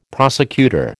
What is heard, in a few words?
"prosecutor", American